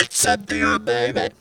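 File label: effects talk, Funky Vocals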